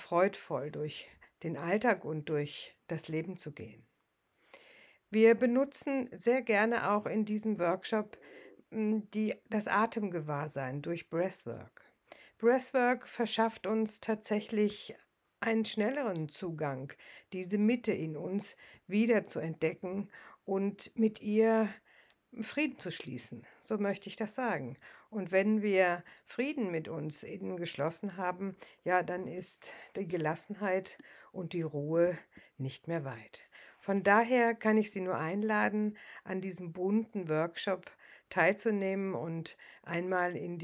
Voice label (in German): Meditation Bewusstsein